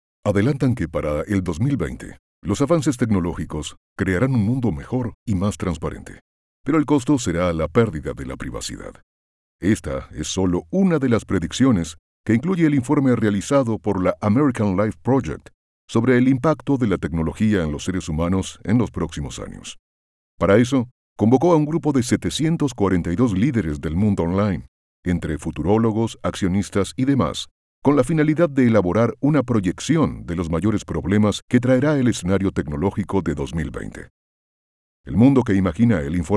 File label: e-learning